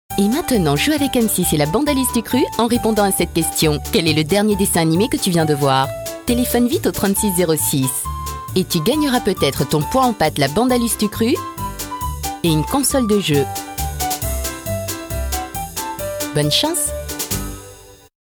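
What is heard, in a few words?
French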